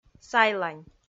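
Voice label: "сайлань", Komi, female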